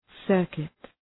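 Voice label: "circuit", English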